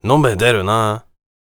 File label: warrior conversation emotion question